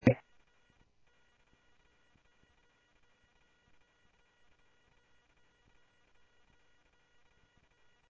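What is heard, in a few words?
Sheriff East County, scanner audio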